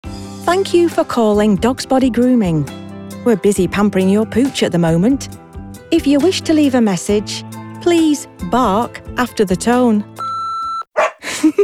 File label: IVR Northern